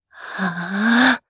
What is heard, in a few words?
Casting, jp; Lorraine; kingsraid